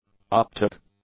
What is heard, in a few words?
"optic", English; adjectives